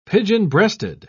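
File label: "pigeon-breasted", British English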